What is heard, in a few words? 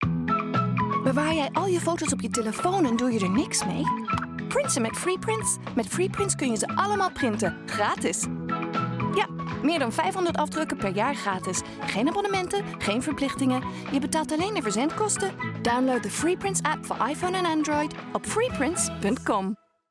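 Dutch, Commercial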